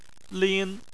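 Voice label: "lin", Chinese, first tone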